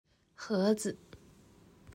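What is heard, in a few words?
"盒子", Chinese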